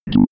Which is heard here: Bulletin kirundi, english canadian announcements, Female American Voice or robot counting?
robot counting